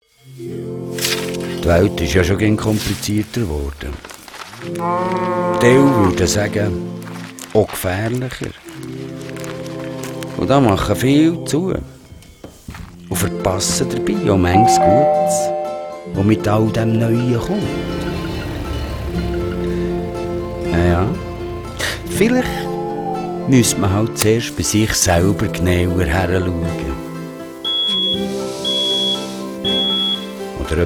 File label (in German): Werbung CH BE; schweizerdeutsch